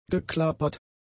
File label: "geklappert", German; adjectives